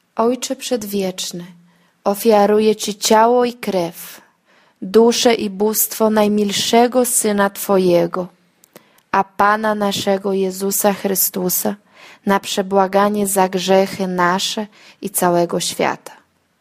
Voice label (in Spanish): padre eterno normal, divina misericordia polaco